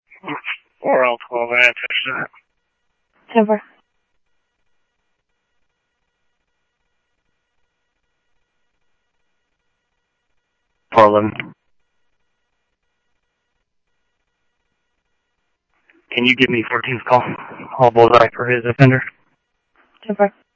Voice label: Police, scanner; H-Scottsdale; ScottsdalePolice

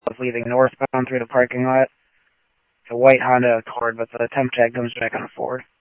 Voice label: Mesa Simulcast, MESA PD, scanner audio

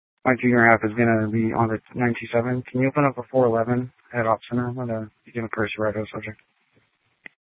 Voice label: Sheriff, scanner P25

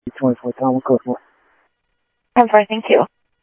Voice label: Sheriff-EastCnty, scanner audio; MCSO - EAST; ThompsonPeakScot

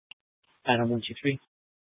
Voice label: Sheriff, scanner; Shrf Southeast

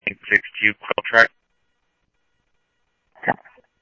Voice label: Police, scanner audio

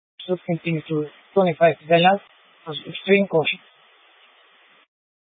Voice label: Light Rail Emergency, scanner

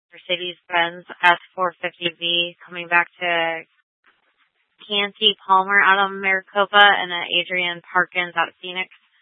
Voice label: Tempe PD, scanner; Rgnl Wireless Co-op (RWC)

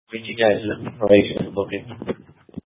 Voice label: Sheriffs Office Central Court Security, scanner; Maricopa County; Chandler-Mesa Simulcast

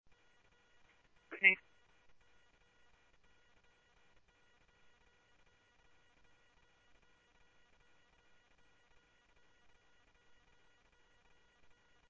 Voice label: Tempe Police, scanner audio; TEMPE PD A02

